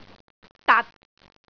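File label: "dat6", Cantonese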